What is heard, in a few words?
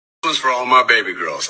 baby girls